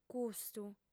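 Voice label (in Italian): "custu", lingua sarda, female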